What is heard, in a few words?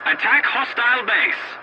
voice message attack enemy base, english